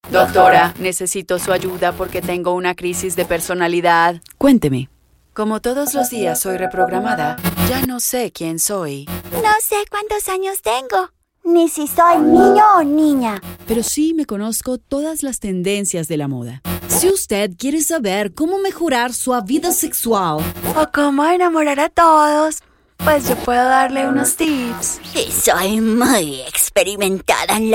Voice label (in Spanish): Voice Over Personajes Espanol